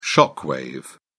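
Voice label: "shock wave", British English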